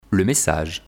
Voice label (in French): "le message", vocabulaire; vocabulaire PMTIC